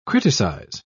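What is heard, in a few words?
"criticise", British English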